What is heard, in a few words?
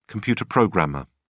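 "computer programmer", English, British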